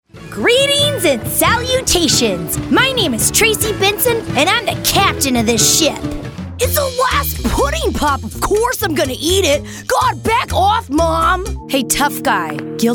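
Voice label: animation female, Voice Over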